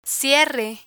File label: "cierre", Spanish; learn spanish